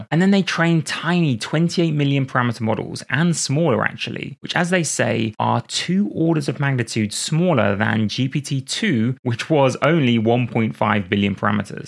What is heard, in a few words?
Explained, eng adult male